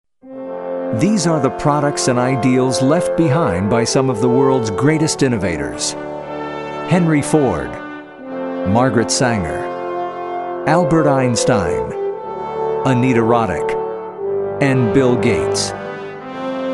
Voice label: Narration